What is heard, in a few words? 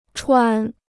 "传", Chinese